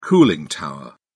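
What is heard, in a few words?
"cooling tower", British English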